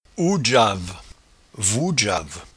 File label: "ouedgeave", patois jura